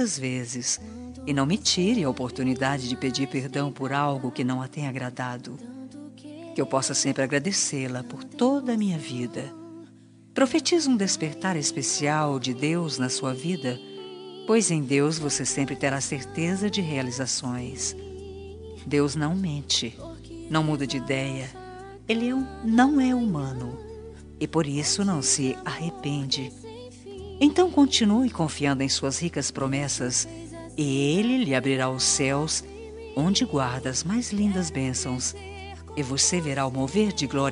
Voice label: mãe gospel, Aniversário de Mãe Gospel – Voz Feminina; Telemensagens para todas as ocasiões

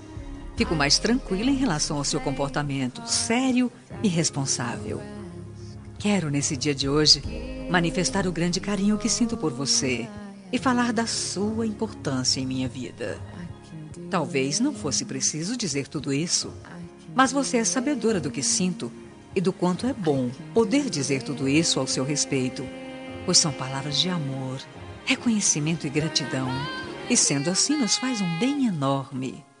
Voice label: Homenagem para Filha, Voz Feminina; Homenagens

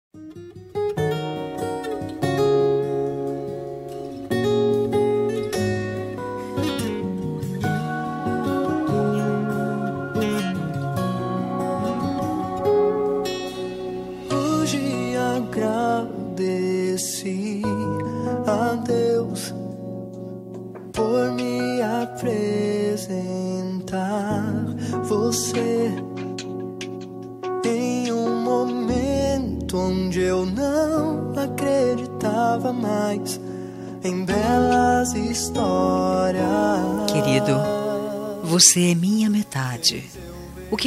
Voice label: aniver esposo gospel

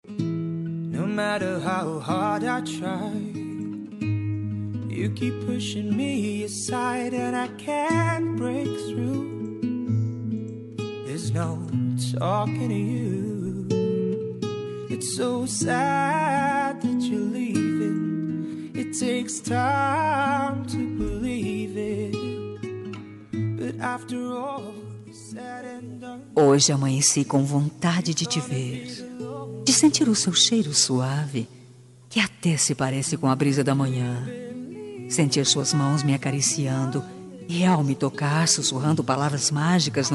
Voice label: romântica, Telemensagem Romântica – Voz Feminina

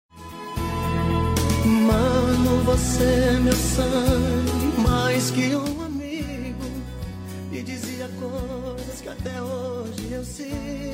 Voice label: irmao fem, Voz Feminina; dia dos pais para irmao

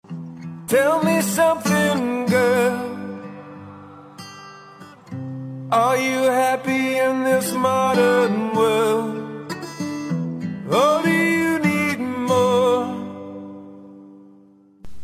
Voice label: rec masc, voz masculina; Dia dos Namorados; reconciliacao

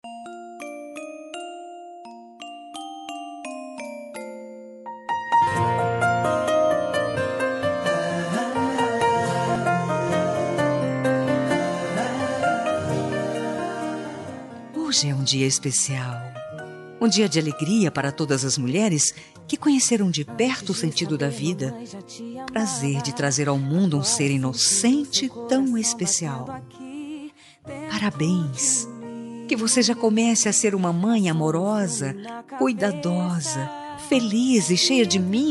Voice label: futura mae fem; dia das maes para futura mamae voz feminina

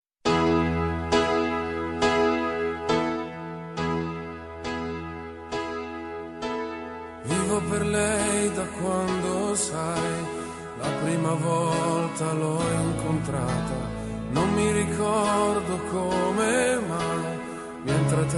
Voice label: dia dos pais para mae que e pai voz masculino

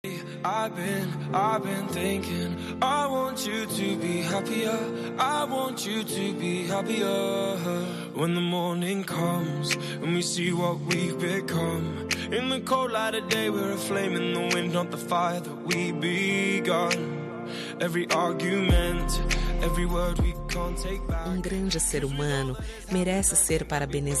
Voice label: cunhada feminina, Aniversário de Cunhada – Voz Feminina; Telemensagens para todas as ocasiões

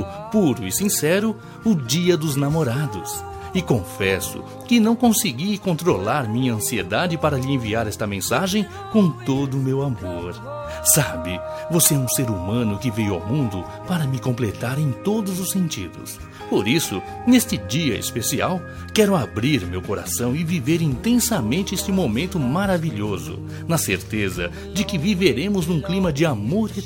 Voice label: aniv masc, voz masculina; dia dos namorados aniversario

